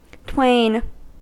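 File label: "twain", US English; pronunciations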